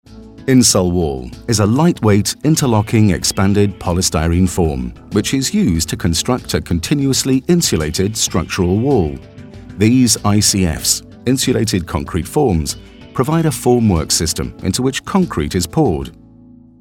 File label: Voiceovers UK Showreel